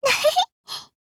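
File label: Mood, Japanese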